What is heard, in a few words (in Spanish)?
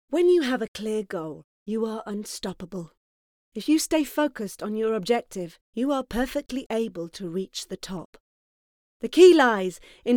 locutora inglesa britanico